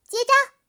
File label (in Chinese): 攻击, BattleCry; 莉莉